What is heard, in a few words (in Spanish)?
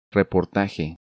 "Reportaje", Spanish